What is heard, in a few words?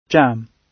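"jam", British English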